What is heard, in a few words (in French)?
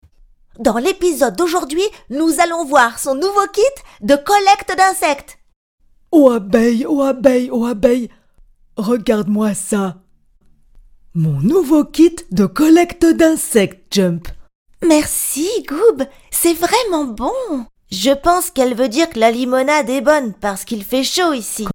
DESSIN ANIME VOIX; voix-off; GOOB